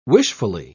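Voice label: "wishfully", English, British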